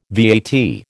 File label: "VAT", American